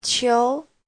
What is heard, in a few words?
"qiu2", Chinese; Giáo trình Hán ngữ